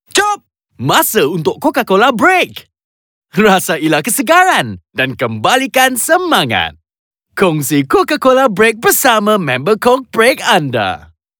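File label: Announcer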